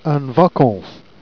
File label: "vacance", Jèrriais